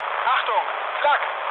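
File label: German damaged aa mood med, speech; War Thunder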